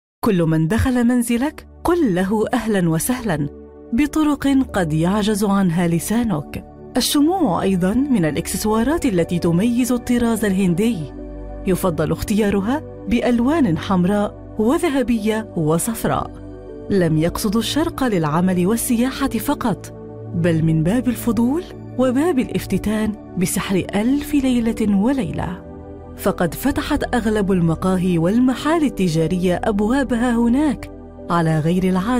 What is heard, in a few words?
arabic documentaries